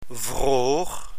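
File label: "vroor", Dutch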